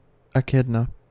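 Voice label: "echidna", American English; pronunciation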